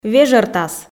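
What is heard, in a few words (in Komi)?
"вежӧртас", Komi, female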